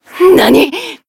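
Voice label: damaged, Japanese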